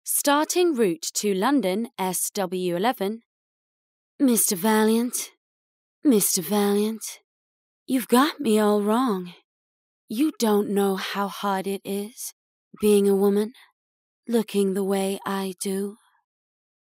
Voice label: Character